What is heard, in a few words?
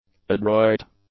"adroit", English; adjectives